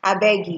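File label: "abegi", Nigerian Pidgin, female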